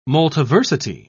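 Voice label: "multiversity", British English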